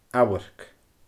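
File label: "amharc", Scottish Gaelic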